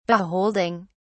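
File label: "beholding", British English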